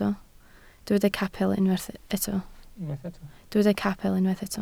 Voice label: "capel", Welsh